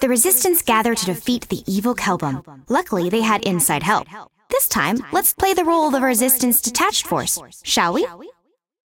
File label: cinematic voice; lineage2